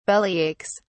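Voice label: "bellyaches", British English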